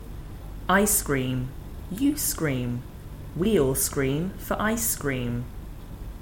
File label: I scream, tongue twisters